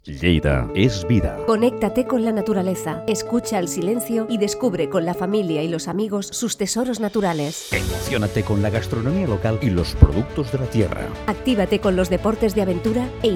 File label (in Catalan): falca castellà